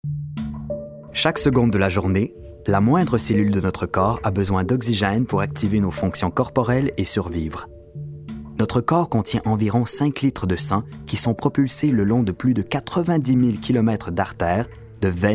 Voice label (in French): narration medecine